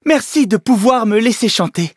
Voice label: levelup, fr; Cookie Run